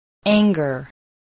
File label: "anger", spelling